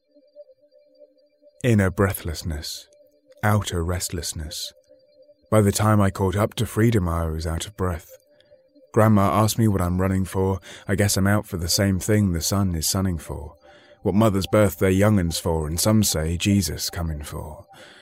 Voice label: spoken word